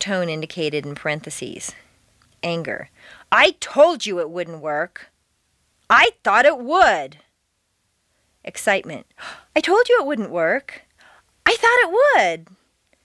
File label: Varying Emotions